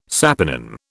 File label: "saponin", British